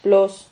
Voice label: "plos", Silabas

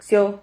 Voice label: "xio", Silabas

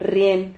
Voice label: "rrien", Silabas

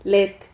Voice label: "let", Silabas

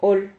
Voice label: "hol", Silabas

